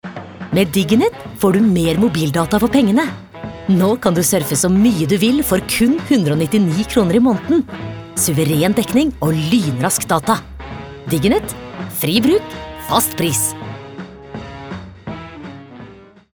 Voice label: no reklame selgende

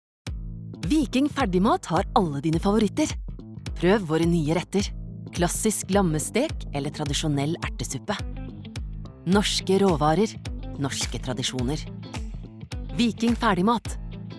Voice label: no reklame

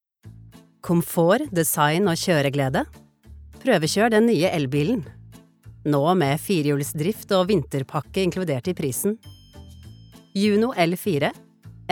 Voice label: reklame, Norwegian; female

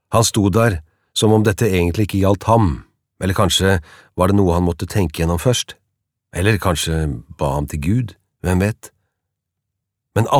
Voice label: no lydbok

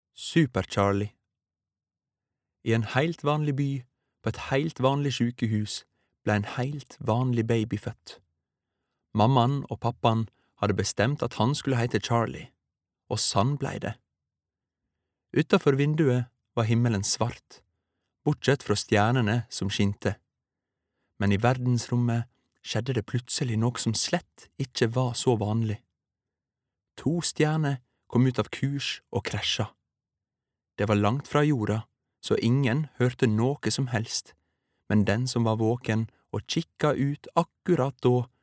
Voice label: barnebok, norwegian, male

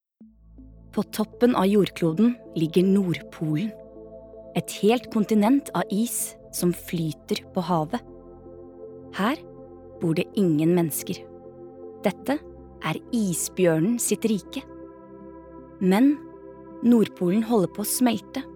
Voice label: no dokumentar

